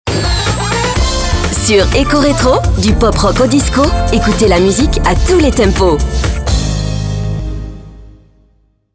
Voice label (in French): VOIX FEM